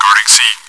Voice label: chitchat; svencoop